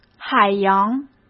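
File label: "海洋", Mandarin Chinese; chinese flashcards; hsk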